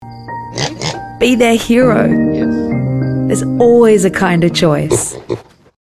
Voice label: Happy

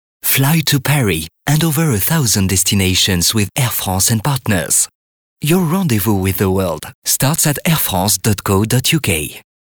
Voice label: RADIO AD IN ENGLISH WITH FRENCH ACCENT; Air France

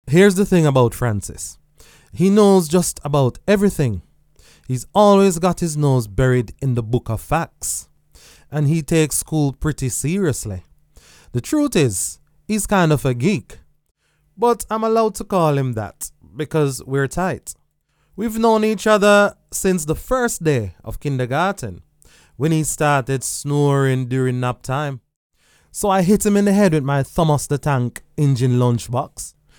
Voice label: Voice acting

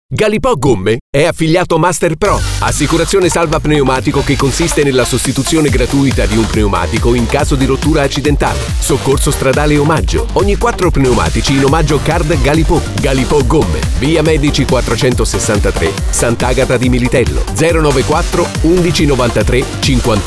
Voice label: spot

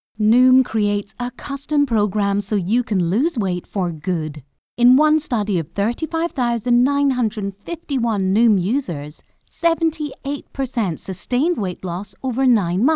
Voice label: Weightloss Scottish; Noom

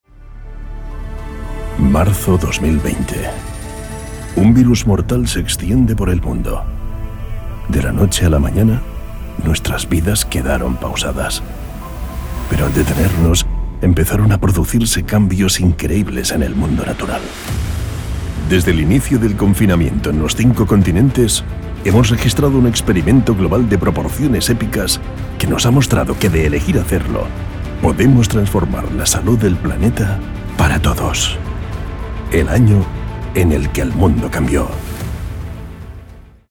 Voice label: ES Trailer

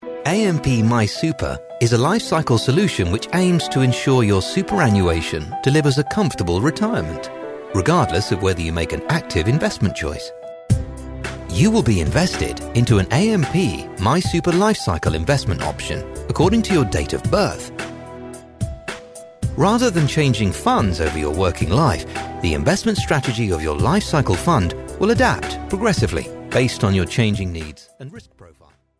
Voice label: Corporate Financial

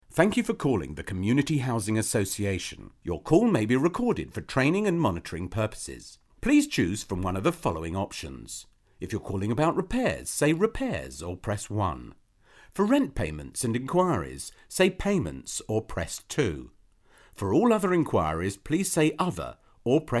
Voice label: On Hold

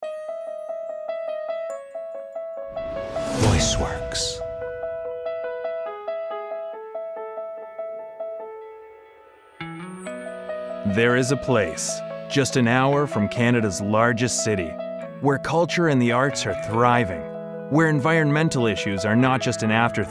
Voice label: narration

